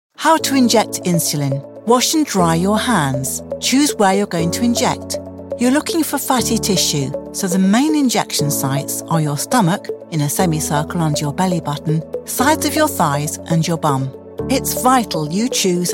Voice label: e-learning; injecting insulin

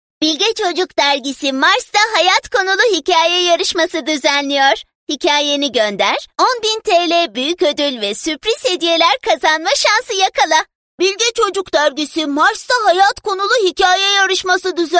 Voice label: cocuksesler kidvoices

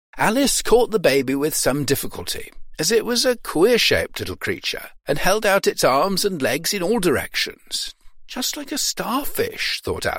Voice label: audiobook

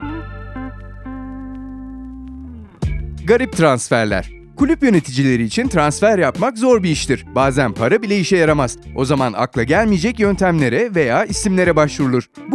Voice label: vo w music; futbolik video 18 garip transferler

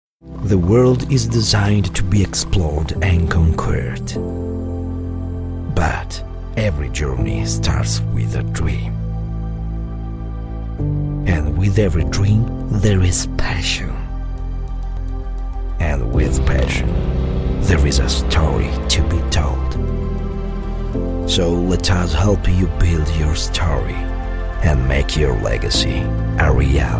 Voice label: english italian voice over

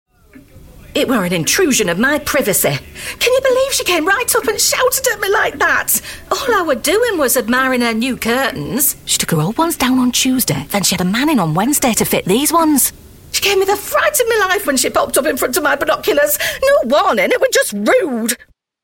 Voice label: characters northern nosy neighbour

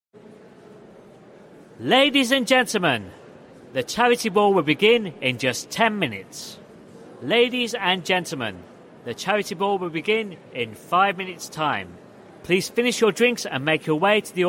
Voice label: voice of god

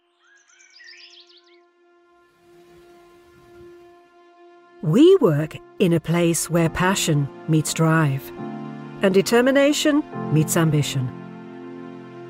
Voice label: advert; cef pgv

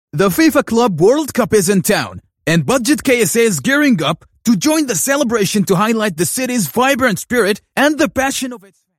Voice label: us english; budgetksa

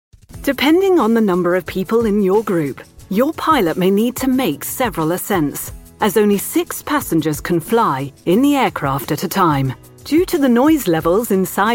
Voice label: narration explainer health

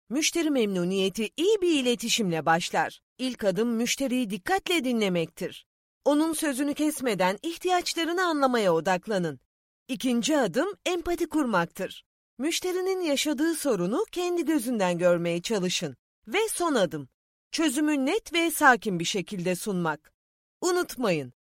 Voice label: e-learning

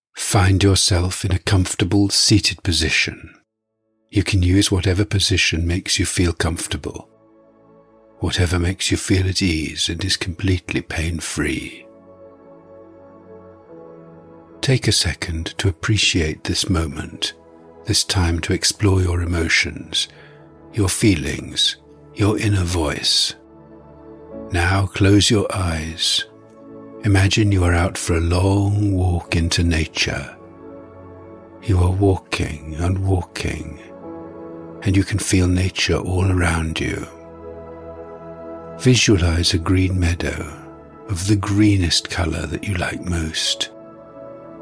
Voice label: meditation

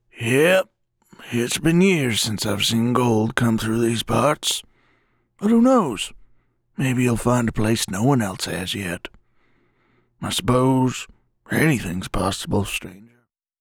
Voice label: old timer

